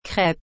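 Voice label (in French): "crêpe", French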